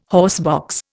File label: "horsebox", Indian